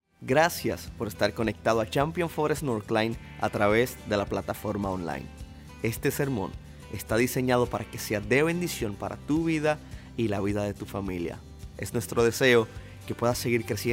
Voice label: Sermon Spanish